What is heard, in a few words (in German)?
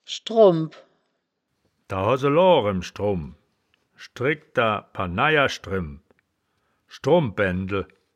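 "schdrombp", Wittlicher Wörterbuch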